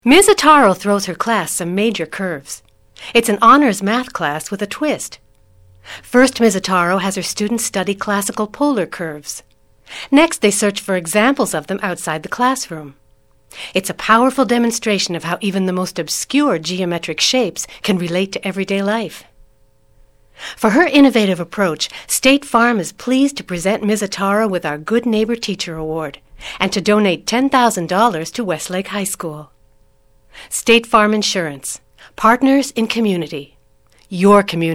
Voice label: commercial female